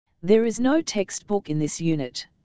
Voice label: dialog